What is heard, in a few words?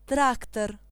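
tractor, Belarusian; tuxpaint-stamps; vehicles; farming; cartoon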